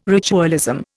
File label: "ritualism", Indian